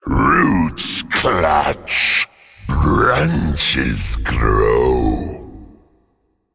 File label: Male Ancient Play